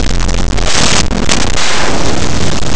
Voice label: "capa", oclusives; Laboratori de fonetica